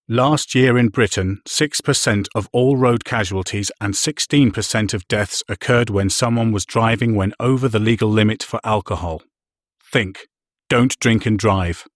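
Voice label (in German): UK English Werbung Neutral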